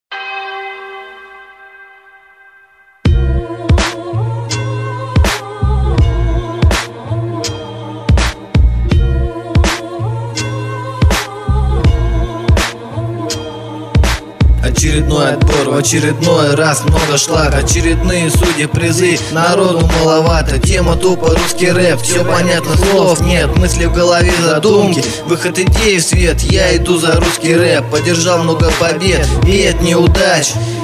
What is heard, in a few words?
russian rap